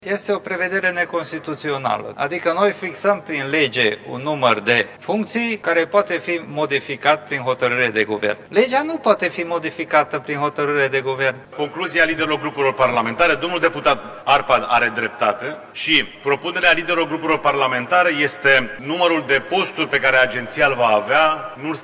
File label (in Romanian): dialog; Zgonea; nr de angajati